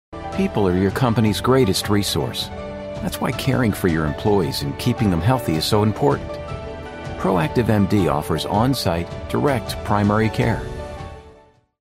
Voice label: Medical and Healthcare Gravitas Sensitive Male Vocal Fry